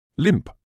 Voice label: "limp", English

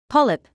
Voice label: "polyp", medical English

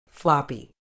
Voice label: "floppy", medical English

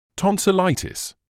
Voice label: "tonsillitis", English

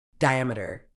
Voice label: "diameter", uk

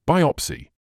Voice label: "biopsy", medical english uk; ˈbaɪ.ɒp.siː; BIGH-op-see

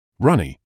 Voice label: "runny", English

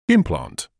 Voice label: "implant", English

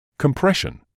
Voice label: "compression", medical english uk; kəmˈpreʃ.ən; kuhm-PRESH-uhn

